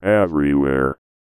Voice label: VOICEFX ELCTR 140; Techno2